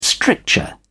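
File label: "stricture", English, British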